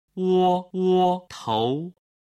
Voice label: "wowotou", Chinese

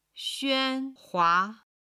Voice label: "xuan1 hua2", chinese

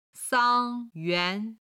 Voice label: "sang1 yuan2", Chinese

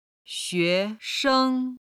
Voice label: "xian1sheng5", Chinese

